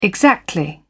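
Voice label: "exactly", British English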